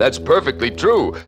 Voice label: that's perfectly true, announcer; Voice

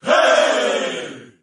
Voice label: "hey", group of men

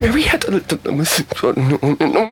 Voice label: mariada lilsisum, gibbrish; Voice

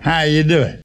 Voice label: "how you doing", male; questions

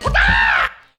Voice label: "wackaw", voice; ooh ahh

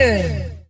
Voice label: "ay", voice

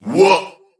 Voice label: "what", Voice

